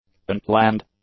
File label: "unplanned", english; adjectives